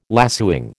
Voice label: "lacewing", American